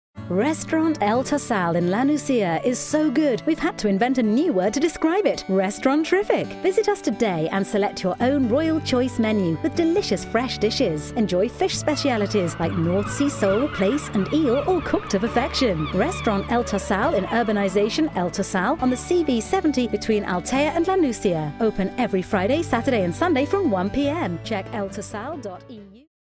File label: female